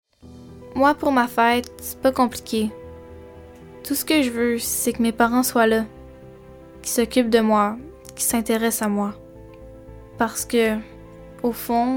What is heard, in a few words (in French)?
Pub Quebecoise